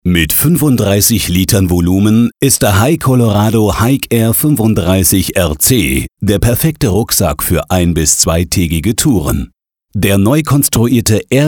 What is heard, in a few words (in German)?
de elearning, deutsch maenner; sprecher